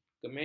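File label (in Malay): "kemeja", Malay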